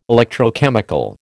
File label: "electrochemical", American